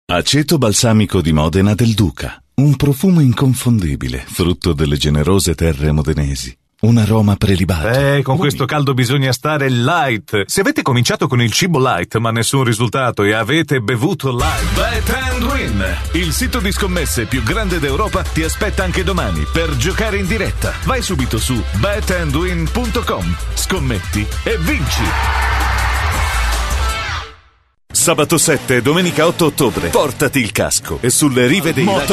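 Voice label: Italian, male, commercial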